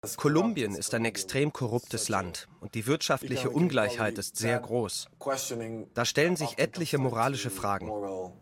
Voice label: Overvoice Dokumentation

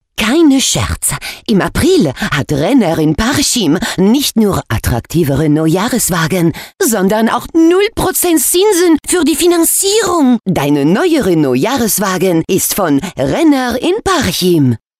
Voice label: Akzent Franzoesisch; LOFT VOICES

